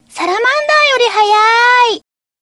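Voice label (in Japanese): 女性ボイス「サラマンダーよりはやーい」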